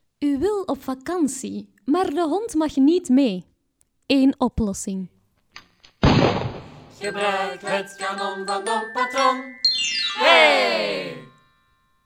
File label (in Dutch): Reklamespotje